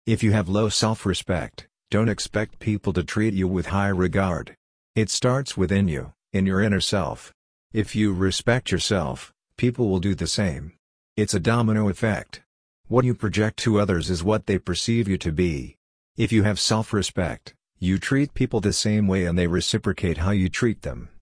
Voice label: Male Voice; Self Respect